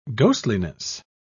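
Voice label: "ghostliness", British English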